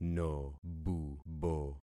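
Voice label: "nobubo", concatenated syllables; Affixing experiment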